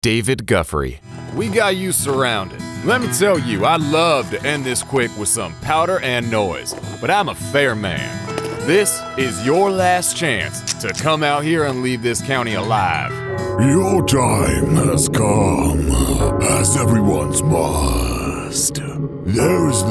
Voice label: Character

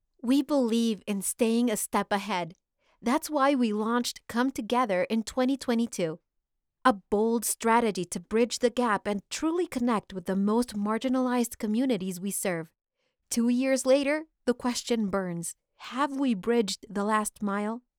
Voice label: ENG Explainer; for Social foundation

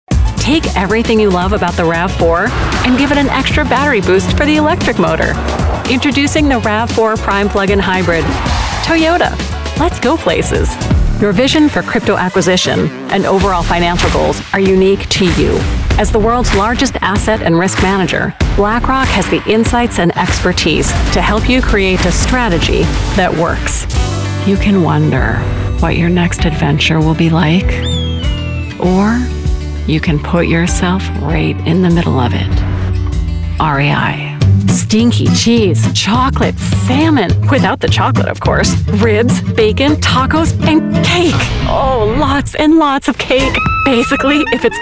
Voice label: Commercials

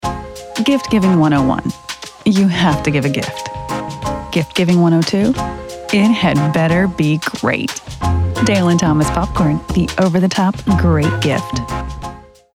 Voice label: Southern Radio Authentic; Dale And Thomas Popcorn

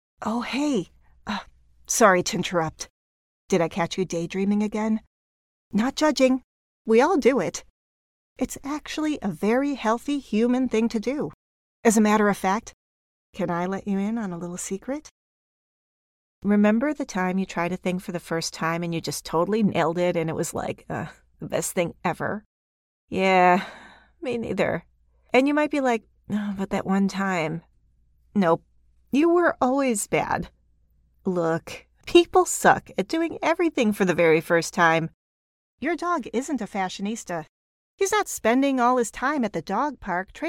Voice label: Conversational

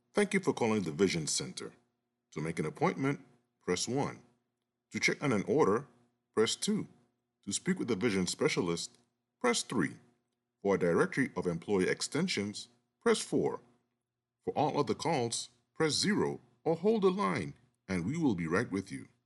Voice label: Vision Center Phone Messages

